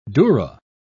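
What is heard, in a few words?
"durra", British English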